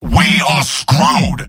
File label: negative vocalization, scout; tf2; garrysmod; mvm